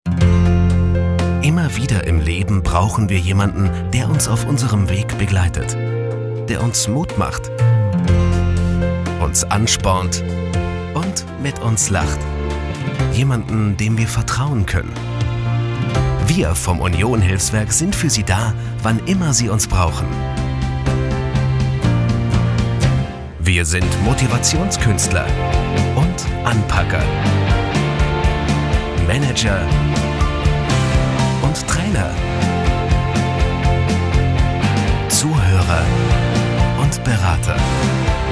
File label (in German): Sprecherdemo Imagefilm klassisch; LOFT VOICES